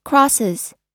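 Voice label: "crosses", US English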